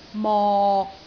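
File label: "mok6", Cantonese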